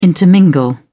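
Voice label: "intermingle", British English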